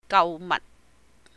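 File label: "Gau Maht", Cantonese; go shopping; purchasing; 購物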